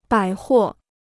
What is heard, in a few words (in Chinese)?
"百货", Chinese